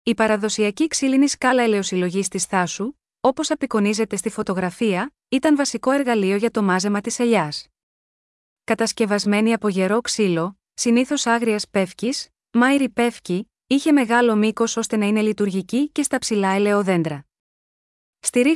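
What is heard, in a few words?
greek, Audio guided tour; Σκάλα; Αποτελεί χαρακτηριστικό δείγμα της αγροτικής παράδοσης και της λαϊκής τέχνης της Θάσου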